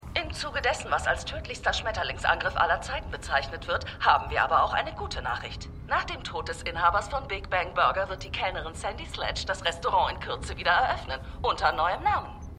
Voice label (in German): Nachrichtenreporterin, Stimmproben